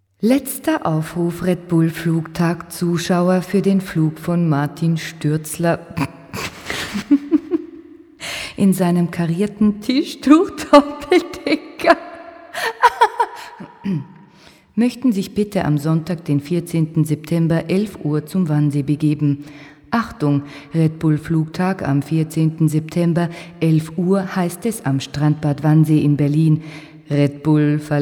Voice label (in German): Werbung nachgesprochen; redbullhall